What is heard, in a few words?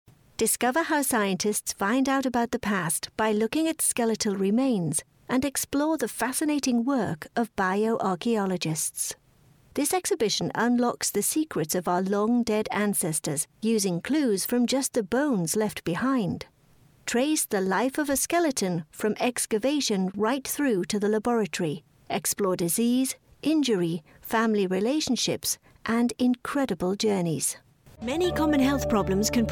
Narration Female, british; International Voiceover, British, Narration, Corporate